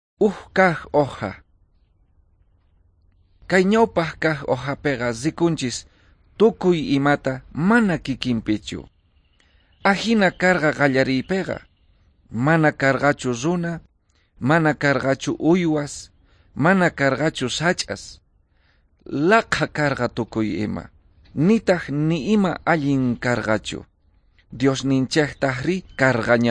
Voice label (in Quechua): quechua bolivian cochabamba; good news